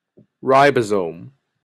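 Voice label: "ribosome", Canadian English; pronunciations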